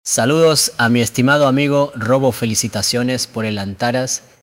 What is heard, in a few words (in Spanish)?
felicdades robo